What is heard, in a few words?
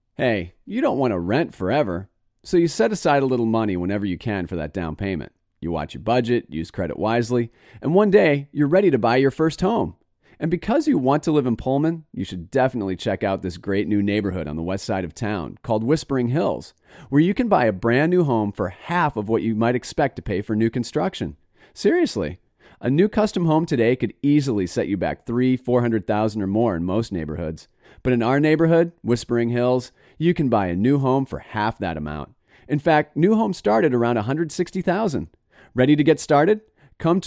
radio advertising; whispering hills